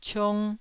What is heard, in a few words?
"ciong1", Taiwanese